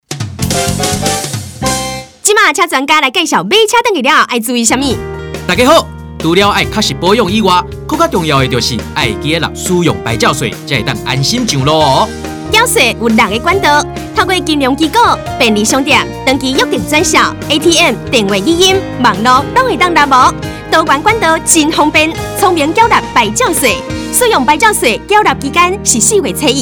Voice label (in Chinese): 使用牌照稅宣導30秒廣播-台語; 使用牌照稅上期宣導短片台語